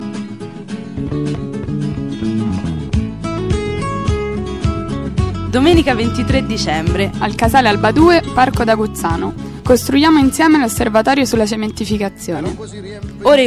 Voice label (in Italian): spot; aguzzANO